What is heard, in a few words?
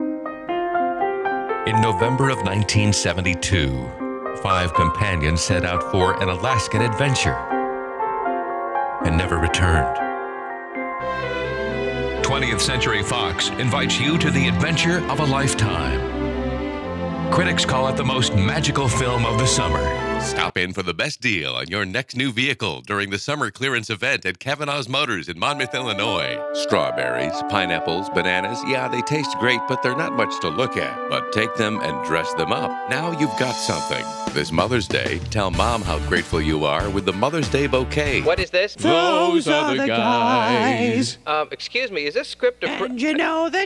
Commercial; Male Voice Talent; Commercial Demo